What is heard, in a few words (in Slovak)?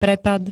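"prepad", Slovak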